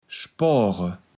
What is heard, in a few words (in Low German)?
"spoare", Platt